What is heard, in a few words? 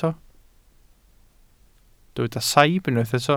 "saib", Welsh